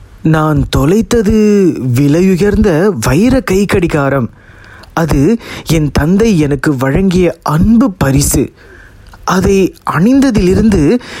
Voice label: Tamil SG Clean VO